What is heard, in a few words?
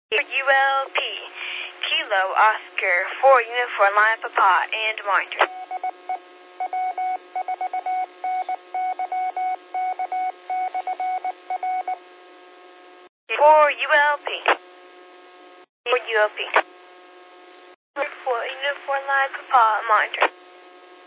qso rec simplex logic